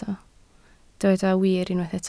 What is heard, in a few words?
"wir", Welsh